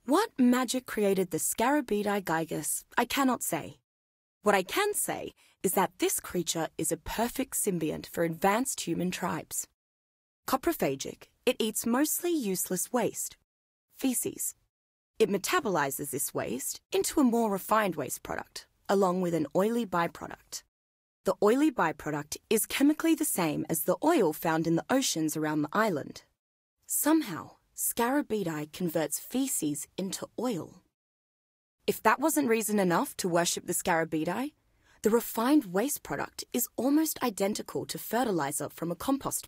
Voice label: Dossier Dung Beetle VO